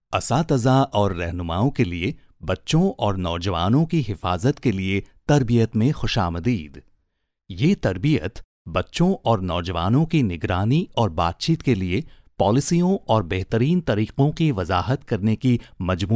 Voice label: urdu male